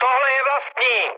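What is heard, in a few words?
player friendly fire, Czech, mood med; War Thunder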